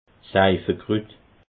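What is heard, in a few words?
"seifekrut", Alsatian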